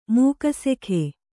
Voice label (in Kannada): "ಮೂಕಸೆಖೆ", Kannada